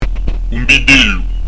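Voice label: "un vitellu", Corsican; un bid'éllu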